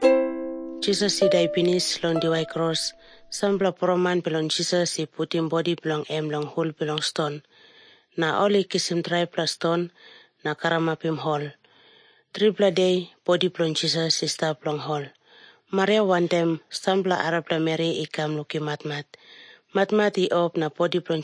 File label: tok pisin; png nt drama; can god forgive me